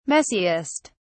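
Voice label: "messiest", English, British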